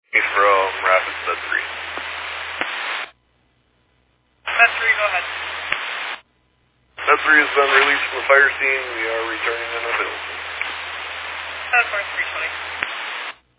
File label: Fire Dispatch